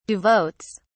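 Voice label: "devotes", British English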